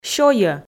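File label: "сёйӧ", Komi, female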